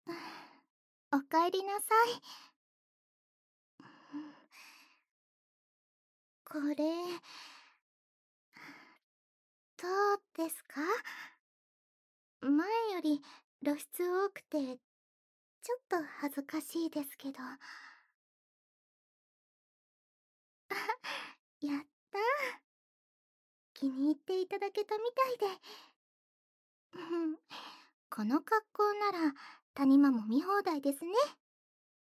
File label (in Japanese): 指圧マッサージ開始, ASMR; SEなし; メンエス嬢の濃厚ラブ施術～失恋中の爆乳お姉さんはあなたと付き合いたい～